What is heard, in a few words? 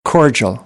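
"cordial", American English; AmericanBritish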